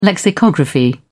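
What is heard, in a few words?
"lexicography", British English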